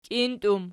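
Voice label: "kintum" nominative, Archi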